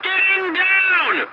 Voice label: voice message landing, english; War Thunder